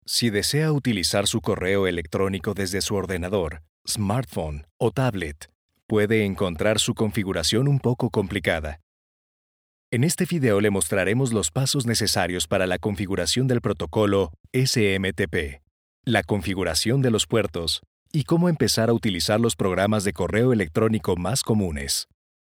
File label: e-learning